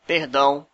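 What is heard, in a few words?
sorry, Brazilian Portuguese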